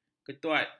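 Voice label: "ketuat", Malay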